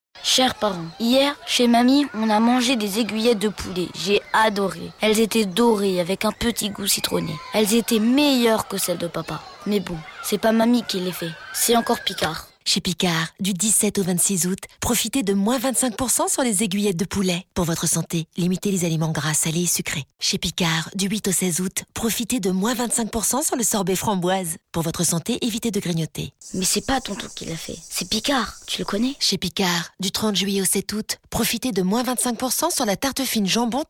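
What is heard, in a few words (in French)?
Maman complice clin d oeil, comédienne voix off; Picard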